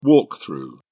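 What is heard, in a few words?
"walk-through", British English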